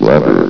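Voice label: "lever", male synthesized announcer voice; blizzard; hlvox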